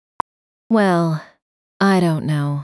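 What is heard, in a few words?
speaker female slow low, dont know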